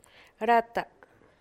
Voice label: 1 everything 2 all every, San Lucas Quiaviní Zapotec; rata; todo, cada